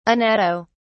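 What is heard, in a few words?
"anatto", English, British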